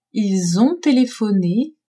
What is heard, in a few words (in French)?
"ils ont telephone", French; telephoner